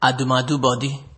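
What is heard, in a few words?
"adomeadobody", Nigerian Pidgin, male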